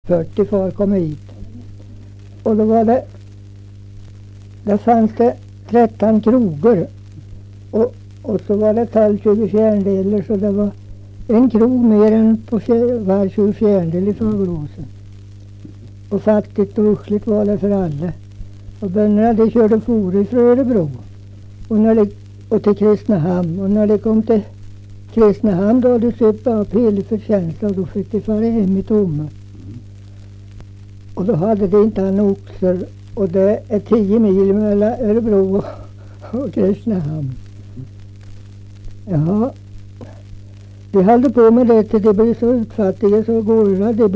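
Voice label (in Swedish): Nysund Närke, dialekter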